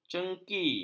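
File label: "cengki", Malay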